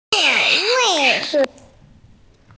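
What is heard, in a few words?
"seat", Mandarin, hearing-impaired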